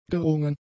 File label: "gerungen", German; adjectives